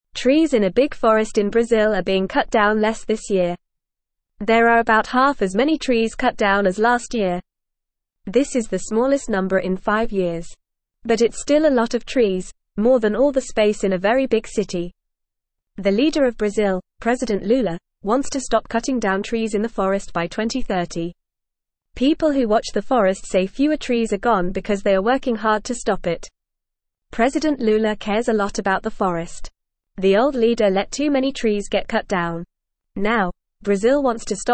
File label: English Newsroom Beginner FAST Reading; Brazils Forest Fewer Trees Cut Down But Still Too Many